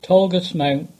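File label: "Tolgus Mount", English; place-names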